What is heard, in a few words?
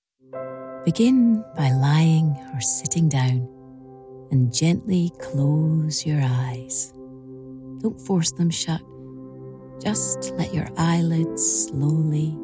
Meditation App Sample